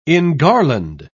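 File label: "engarland", British English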